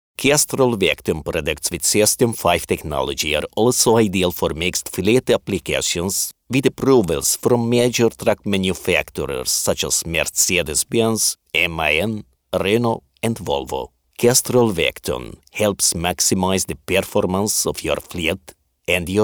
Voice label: hungarian others